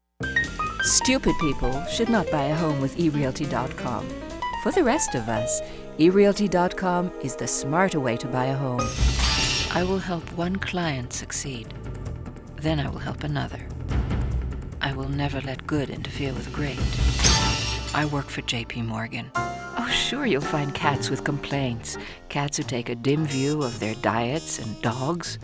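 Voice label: Commercials, voiceover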